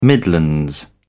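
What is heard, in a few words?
"midlands", British English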